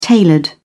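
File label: "tailored", British English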